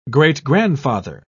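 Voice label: "great-grandfather", British English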